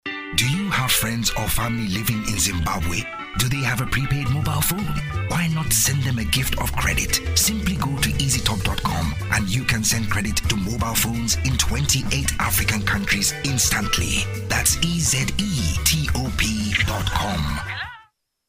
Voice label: british african african commercial